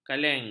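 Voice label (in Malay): "kaleng", Malay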